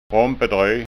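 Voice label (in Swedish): "rompedroj", Skåne dialect Swedish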